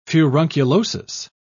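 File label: "furunculosis", British English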